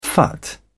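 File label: "phut", British English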